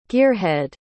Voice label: "gearhead", pronounce